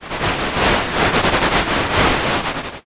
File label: whisper